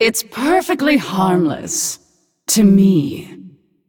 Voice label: Female Play